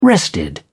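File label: "rested", British English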